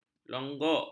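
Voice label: "longgok", Malay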